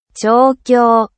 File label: training japanese